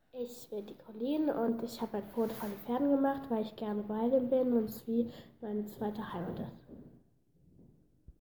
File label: deutsch